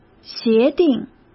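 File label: "协定", Mandarin Chinese; chinese flashcards; hsk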